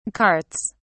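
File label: "carts", English, British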